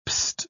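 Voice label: "pst", British English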